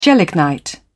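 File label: "gelignite", British English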